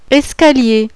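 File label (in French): "ESCALIER", French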